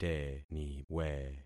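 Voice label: "teniwe", concatenated syllables; Affixing experiment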